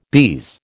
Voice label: "bise", American

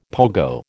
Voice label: "pogo", American

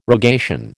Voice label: "rogation", American

